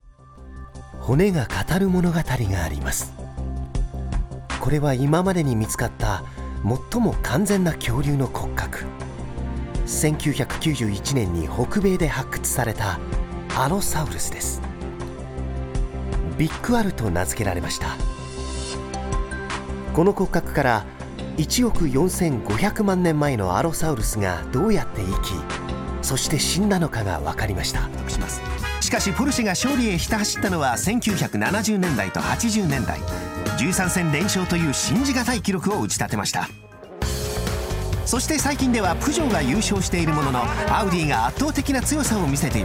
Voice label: narr

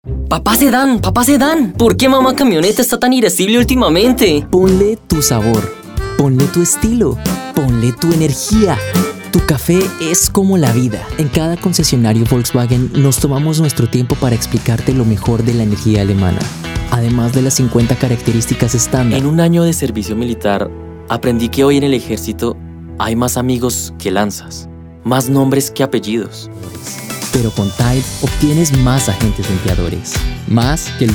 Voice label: spanish

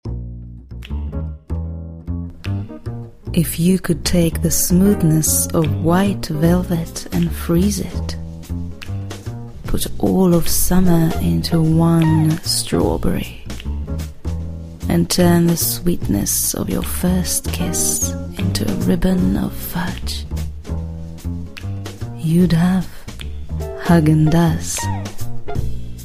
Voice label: eng

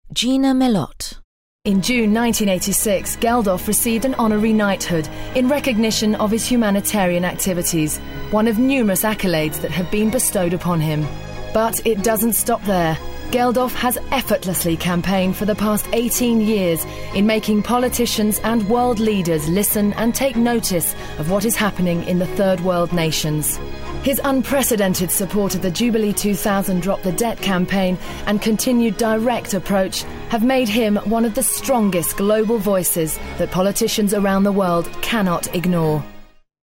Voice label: narr

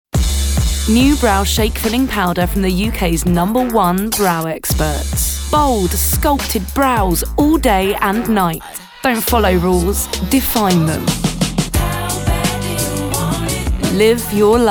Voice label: commercial

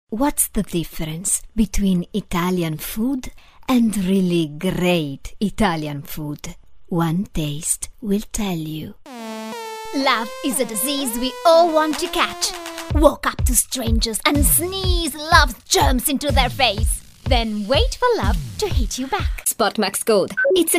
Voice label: eng narr

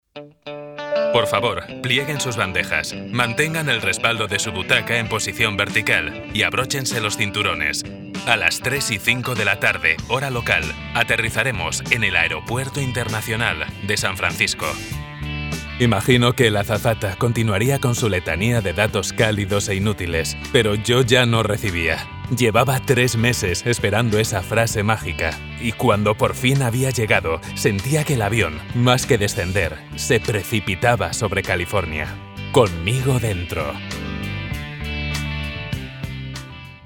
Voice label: narrative spanish

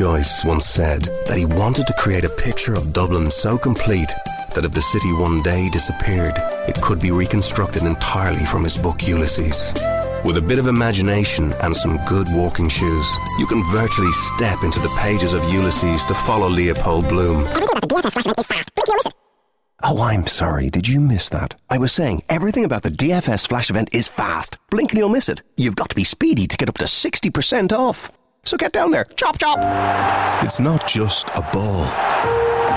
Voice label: irish

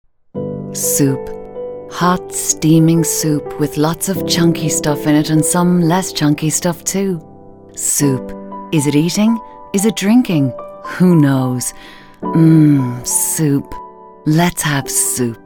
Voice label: commercial

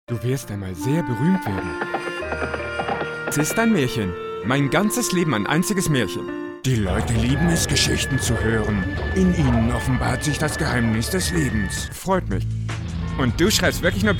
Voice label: german character

